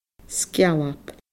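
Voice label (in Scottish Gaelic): "sgealb", Scottish Gaelic; splinter; shard; index/pointer/trigger finger; good-looking man; chip (in computing)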